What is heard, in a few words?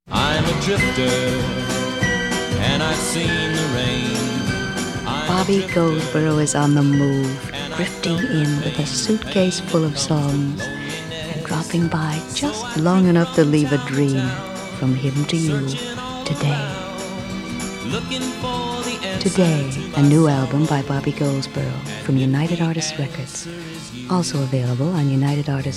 Radio Commercials